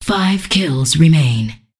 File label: Voices Announcer Status